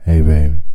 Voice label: HEY BABY, Male Vox; Hip Hop Nation

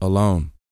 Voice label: TALK, Vocal, SCI-FI; 5 SCI-FI CHZ

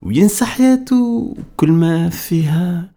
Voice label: POET, POET VOICE, Arabian Traditions

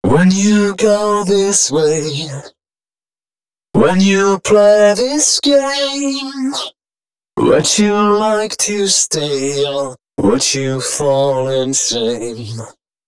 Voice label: male d#130, Dance Ultra Voice hooklines

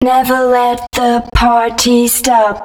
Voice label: female, spoken hooks

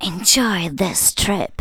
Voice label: enjoy this trip, spoken; Techno Trance Essentials

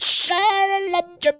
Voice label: "CHALA", funky vocals; USB Soundscan vol.18; AKAI; TB MELODY

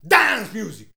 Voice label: "DANCE MUSI", SHOUTS; Best Service; Hyper Dance

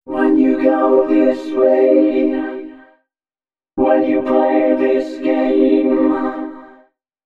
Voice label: male, dis130, voice; FX; Mutekki Media; Dance Ultra Voice VOL-1

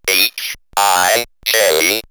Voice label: Robot H, I, J, robot vox; X-Static Goldmine

